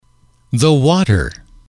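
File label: "the water", English, male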